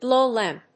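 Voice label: "blowlamp", English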